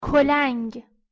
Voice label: "kolang" crane, Persian

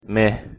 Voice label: "meh", Persian

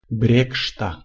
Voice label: "brekSta", Lithuanian

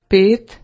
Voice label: "5", Slovenian

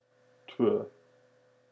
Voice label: "tvö" Icelandic

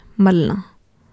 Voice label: "malna" rubbing, Urdu, F

